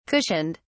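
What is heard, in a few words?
"cushioned", British English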